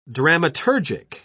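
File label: "dramaturgic", British English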